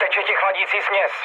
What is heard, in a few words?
damaged water, speech cze, mood low; War Thunder